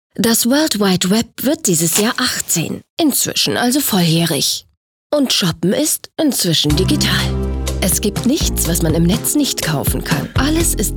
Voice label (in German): Imagefilm; LOFT VOICES